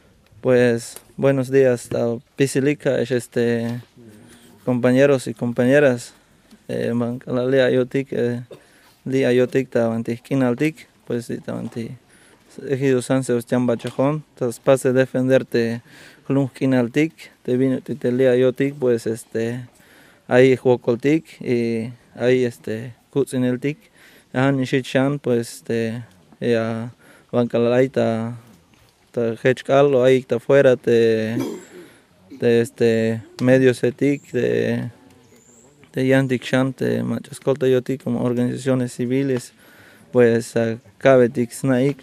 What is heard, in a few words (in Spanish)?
mensaje desde bachajon Tzeltal; Mexico